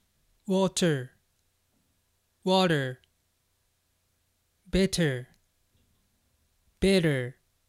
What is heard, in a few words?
"water" "better"